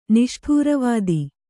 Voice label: "ನಿಷ್ಠೂರವಾದಿ", Kannada

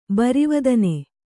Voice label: "ಬರಿವದನೆ", Kannada

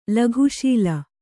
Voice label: "ಲಘುಶೀಲ", Kannada

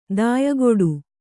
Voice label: "ದಾಯಗೆಡು", Kannada

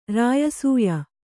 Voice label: "ರಾಯಸೂಯ", Kannada

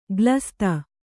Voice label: "ಗ್ಲಸ್ತ", Kannada; glasta; swallowed; eaten; consumed.

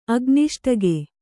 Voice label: "ಅಗ್ನಿಷ್ಠಗೆ", Kannada

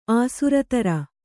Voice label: "ಆಸುರತರ", kannada

